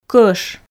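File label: "кӧш", Komi, female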